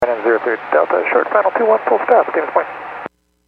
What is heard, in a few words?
Aviation 122.7; KSTE